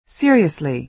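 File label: "seriously", American English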